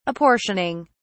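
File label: "apportioning", British English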